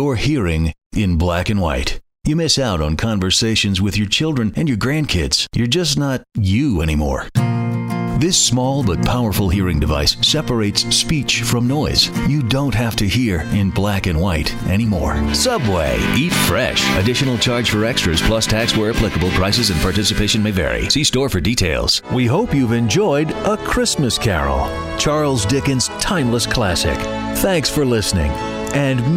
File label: spot, male commercials; InternetJock